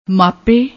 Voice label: "maape", Punjabi; ਮਾਪੇ